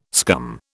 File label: "scum", British